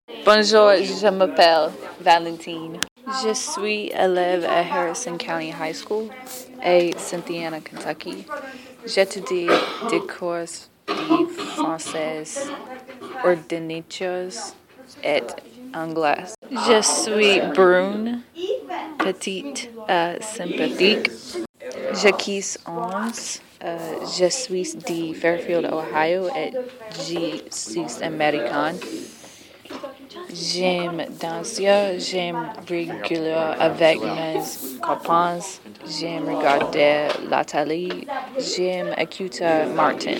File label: french